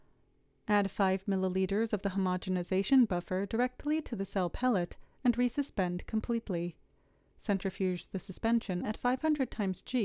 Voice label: Voiceover